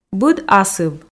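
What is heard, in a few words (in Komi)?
быд асыв, Komi, female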